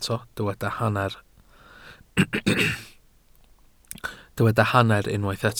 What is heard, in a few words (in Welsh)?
"hanner", Welsh